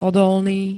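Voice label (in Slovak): "odolný", Slovak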